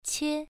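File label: "qie", Mandarin Chinese syllable, first tone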